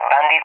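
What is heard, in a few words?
"bandit", English, SPAIN; DCS; Allied Flight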